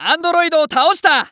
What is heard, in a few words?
Announce New Boss Die, JPN; Eternal Return